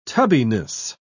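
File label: "tubbiness", British English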